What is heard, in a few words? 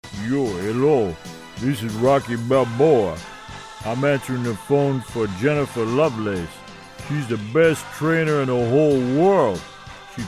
telephone message